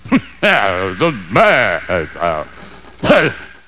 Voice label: gibberish